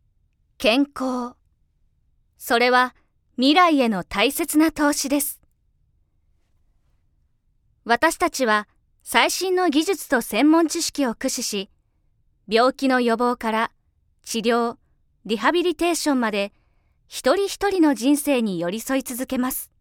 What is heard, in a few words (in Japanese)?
企業CM(硬め)